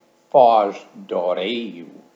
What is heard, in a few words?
"Foz do Arelho", Portugal